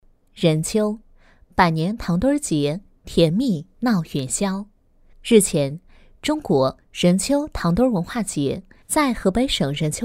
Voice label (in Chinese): 女 元宵, 文旅节气样音